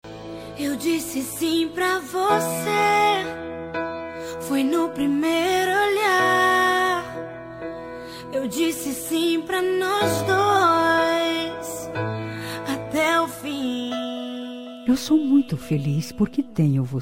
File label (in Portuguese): dia dos namorados gospel namorado, evangélica voz feminina; dia dos namorados para namorado evangelica